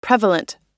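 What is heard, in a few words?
"prevalent", US English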